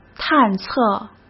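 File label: "探测", Mandarin Chinese; chinese flashcards; hsk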